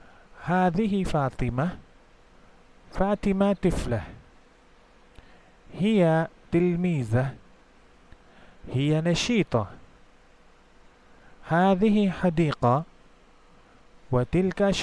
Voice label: arabic basic listening practice

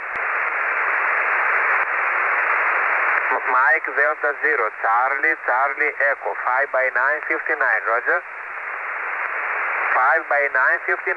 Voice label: 21MHz SSB

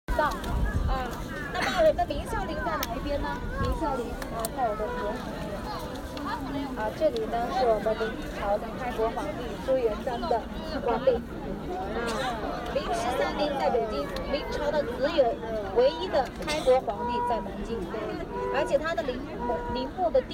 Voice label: ming tombs tourist guide

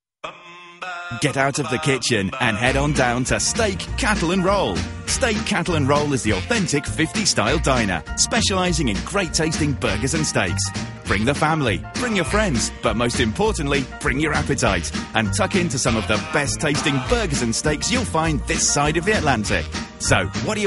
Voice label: radio campaign; steak cattle roll

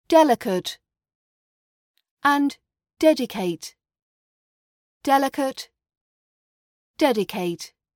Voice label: delicate dedicate rp british accent training

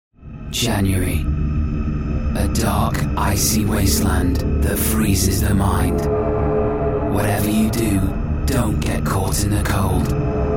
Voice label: tv trailer scifi

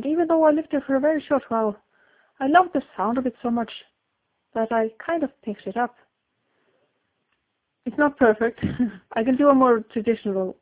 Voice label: accent

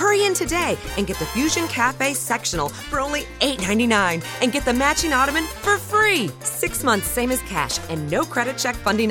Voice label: furniture radio commercial

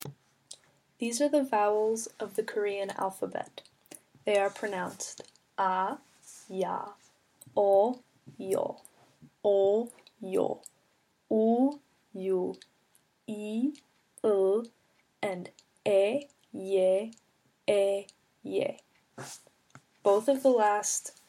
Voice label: korean vowels

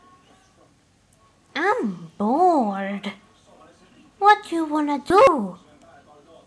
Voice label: voice acting

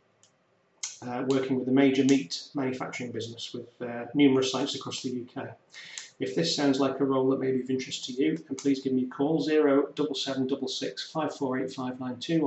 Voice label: commercial executive

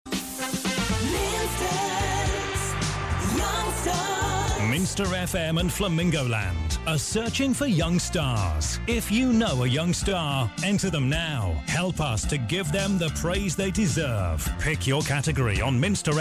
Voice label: young stars zoo advert

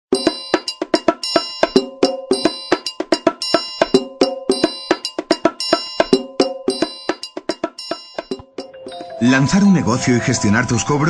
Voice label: spanish voice overs

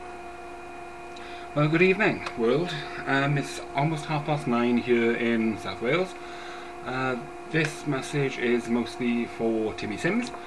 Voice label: how welsh do i sound

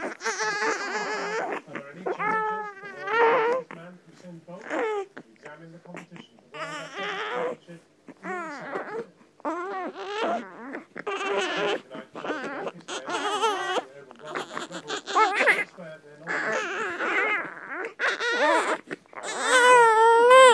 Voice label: conversation

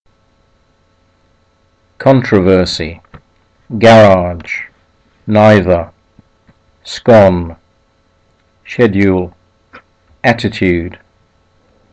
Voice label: bedfordshire uk male

